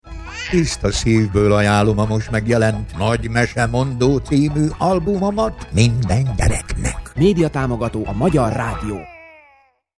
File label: radiospot; zeneker